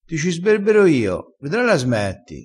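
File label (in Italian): "sberbera", dialettale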